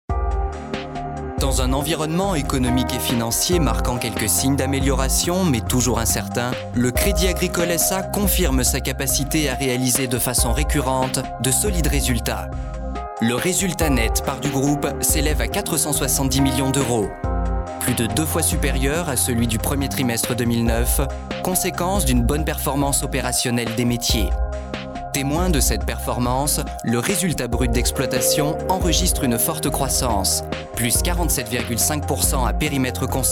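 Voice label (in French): French, male, commercial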